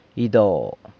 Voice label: "ydo" church, San Lucas Quiavini

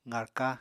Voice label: "qarka", Apatani

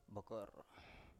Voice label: "bokor", Biak

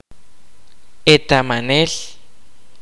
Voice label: "wiseman", kaqchikel

